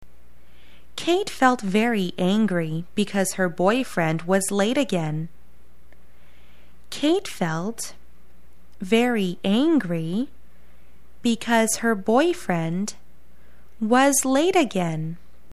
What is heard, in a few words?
angry